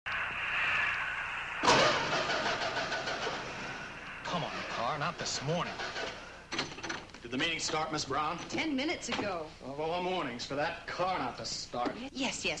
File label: Sunoco Commercial Dry Gasoline; 1966; Features 65 Plymouth Fury